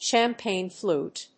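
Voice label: "Champagne flute", English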